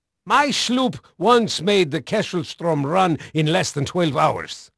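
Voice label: Dutch male, voice; Pirates Of The Caribbean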